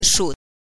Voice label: "shu", pinyin